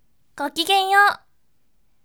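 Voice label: voice, bright; gokigenyou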